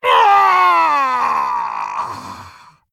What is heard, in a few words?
Orc Emote Death; Nerzhul